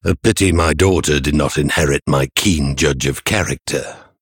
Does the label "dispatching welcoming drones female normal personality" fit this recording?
No